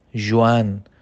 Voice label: Juin Farsi

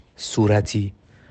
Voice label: "Rose" Farsi

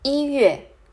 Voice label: "Janvier" Mandarin

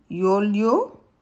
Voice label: "Juillet" Arabe

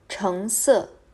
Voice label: "Orange" Mandarin

